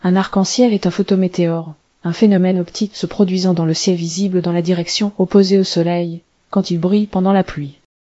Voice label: speaker, fr FR; piper-voices

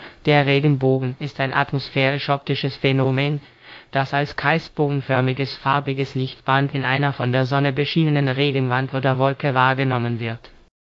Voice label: speaker, de_DE; piper-voices

